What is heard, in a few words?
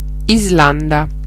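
"iceland", Italian; geography; gcompris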